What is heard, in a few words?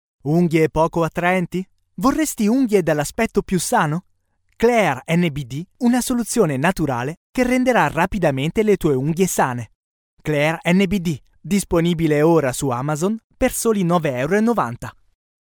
locutor italiano italian voiceover; locutor de Italia